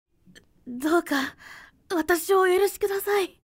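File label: female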